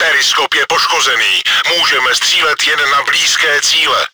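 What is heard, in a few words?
surveying devices crit damaged, чешской озвучки; Periskop je poškozený, můžeme střílet jen na blízké cíle.; Czech; World of Tanks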